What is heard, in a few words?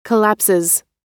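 "collapses", American English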